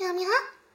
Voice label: doubt, vocal; Techmino